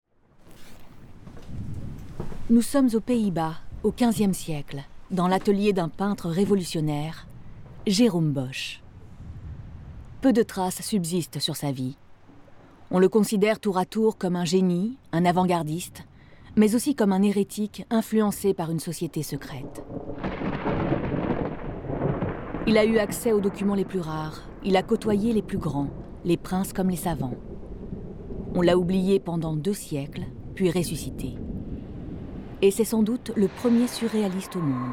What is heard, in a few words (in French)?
Documentaire; Documentaire Jerome Bosch; le diable aux ailes d'ange sur france 5